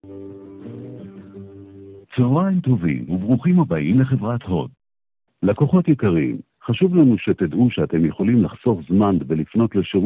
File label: Call recording